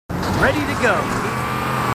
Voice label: marines, marine timid; h2dialogue